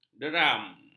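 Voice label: "deram", Malay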